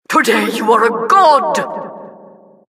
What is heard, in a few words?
announcer, vo, killstreak